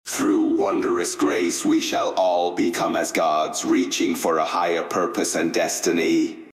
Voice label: robotic evil